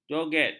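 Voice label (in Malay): "joget", Malay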